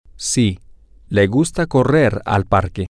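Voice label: yes she likes to run to the park, spanish, male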